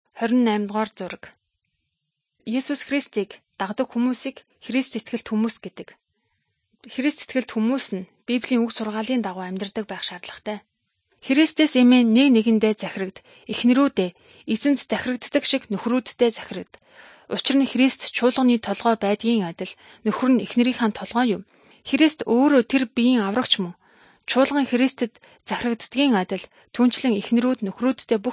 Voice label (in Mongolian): good news mongolian